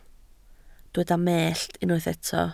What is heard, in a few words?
"mellt", Welsh